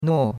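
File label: "nuo4" Mandarin Chinese syllable, fourth tone; Cours de Chinois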